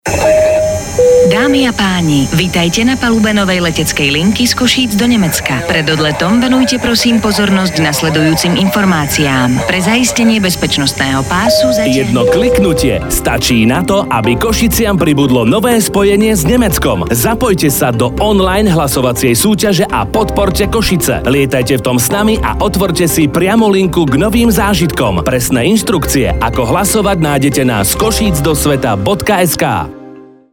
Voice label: radio